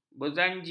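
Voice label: "berzanji", Malay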